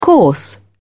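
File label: "coarse", British English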